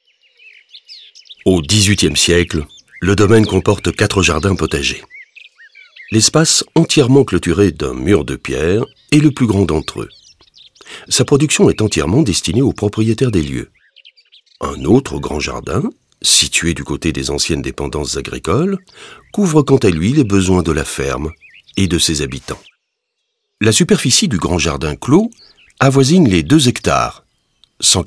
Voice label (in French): audioguide fr adulte; modave